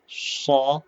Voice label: short voyels arabic alphabet